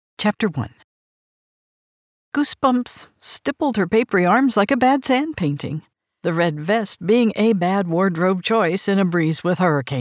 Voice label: Granny Retail Sample